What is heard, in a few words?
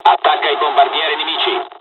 night raid, dialogs italian; War Thunder